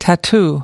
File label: "tattoo", us